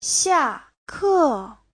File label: "xia4ke4", Chinese; 下课 : tan học